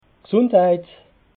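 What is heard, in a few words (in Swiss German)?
"gsundheit", Alsatian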